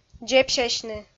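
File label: "дзебсясьны", Komi, female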